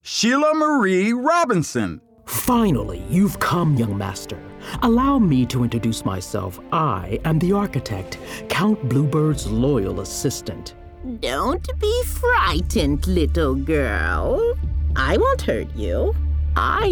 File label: CHARACTER VO